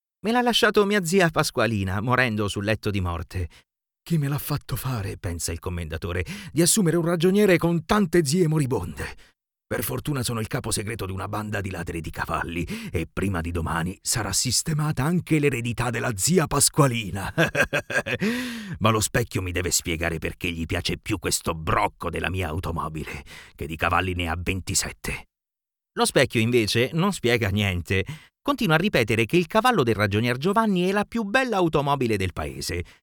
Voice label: italian animation